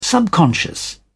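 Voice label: "subconscious", British English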